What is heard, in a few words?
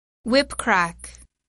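"whipcrack", English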